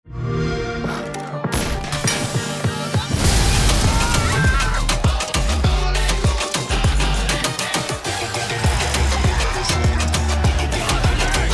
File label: TV Deep Strong Impacting; Far Cry 6; Ubisoft